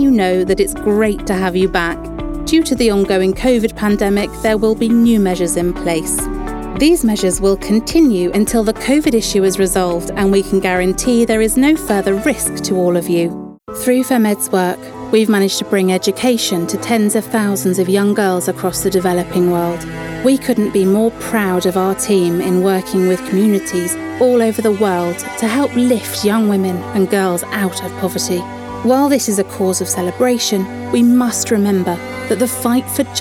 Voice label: Corporate Explainer